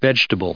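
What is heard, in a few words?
"vegetable", US English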